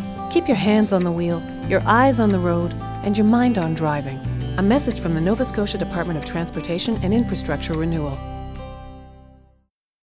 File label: Cell Phone Radio Ad; roadsafety